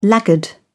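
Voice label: "laggard", British English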